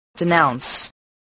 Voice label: "denounce", English

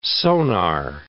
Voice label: "sonar", English

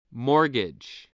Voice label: "mortgage", voice, spelling

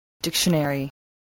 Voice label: "dictionary", english spelling

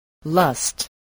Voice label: "lust", spelling voice

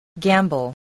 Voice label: "gamble", voice, English

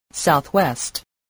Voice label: "southwest", English voice

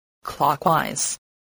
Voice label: "clockwise", voice

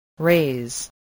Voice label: "raise", english

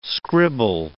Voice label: "scribble", English

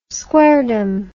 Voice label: "squaredom", English

